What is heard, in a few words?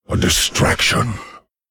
Male Lich Oops